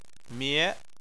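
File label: "mie", Chinese, second tone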